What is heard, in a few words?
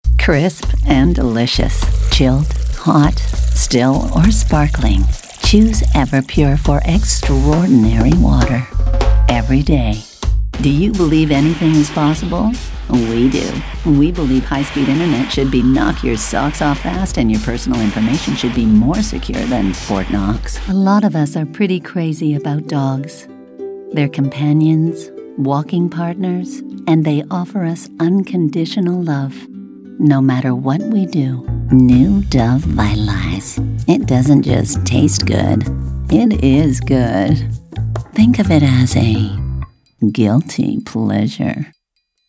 Commercial